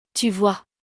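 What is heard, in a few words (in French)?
"tu vois", French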